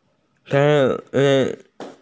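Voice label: "sun", Mandarin; tai4yang2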